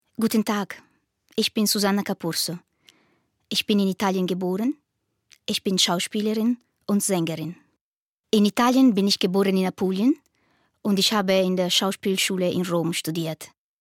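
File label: it, Italian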